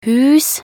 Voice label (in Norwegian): "hus", nb_NO